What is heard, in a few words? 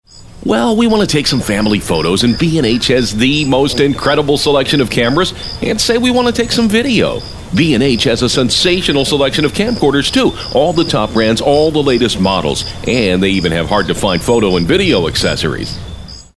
guy next door